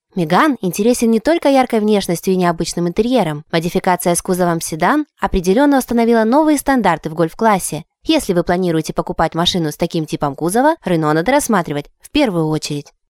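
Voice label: Ukrainian, female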